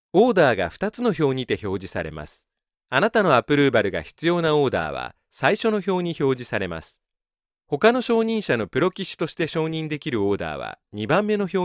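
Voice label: Japanese elearning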